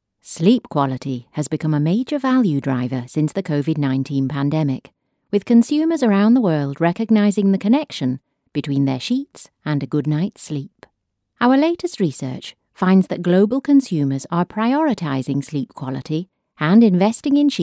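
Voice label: English, UK, medical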